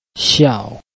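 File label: "xiao4", Mandarin Chinese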